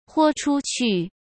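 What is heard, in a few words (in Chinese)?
"豁出去", Mandarin Chinese; hsk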